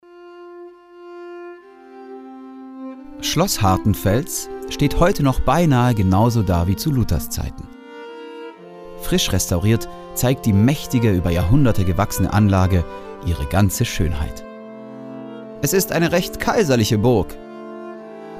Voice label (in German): Audioguide; Hartenfels